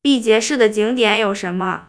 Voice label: synthesized; fast speech2; AISHELL3